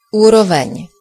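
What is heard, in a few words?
level, Basque; gcompris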